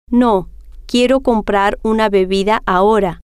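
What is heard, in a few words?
no Quiero Comprar Bebida Ahora, Spanish, female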